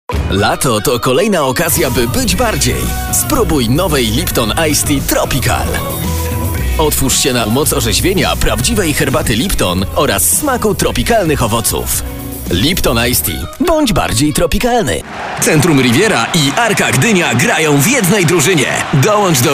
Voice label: COMMERCIALS